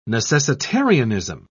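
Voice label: "necessitarianism", British English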